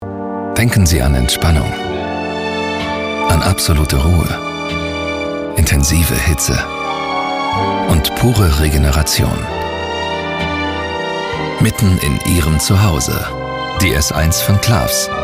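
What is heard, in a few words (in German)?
Werbung Sanft; Fremdtake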